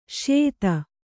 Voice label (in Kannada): "ಸ್ಯೂತಿ", Kannada